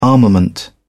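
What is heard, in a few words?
"armament", British English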